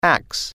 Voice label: "axe", English

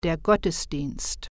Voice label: "workship", German

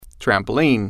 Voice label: "trampoline", English

